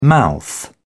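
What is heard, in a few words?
"mouth", British English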